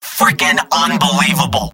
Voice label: scout jeers; mvm

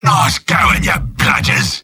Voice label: sniper jeers; mvm

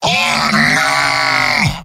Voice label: heavy negative vocalization; tf2; mvm

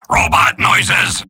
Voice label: soldier robot; mvm

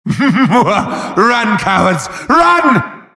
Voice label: wheel scared; halloween; merasmus

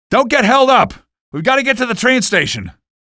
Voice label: cit squad flee, male; Garry's Mod

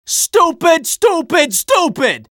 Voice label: scout negative vocalization; tf2

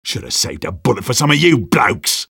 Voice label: jeers; sniper; garrysmod; tf2-content-gmodcontent

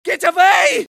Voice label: scared; medic

